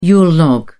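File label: "yule log", British English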